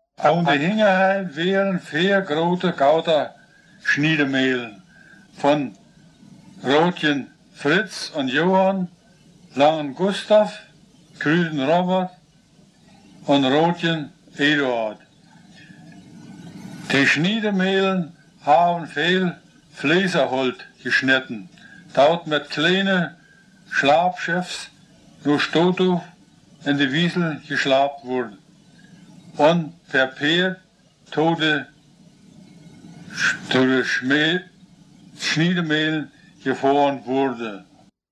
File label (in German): Stutthoefer Platt; Stutthof